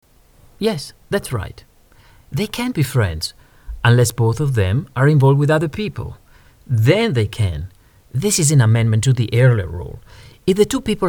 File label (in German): ENG Akzent Italienisch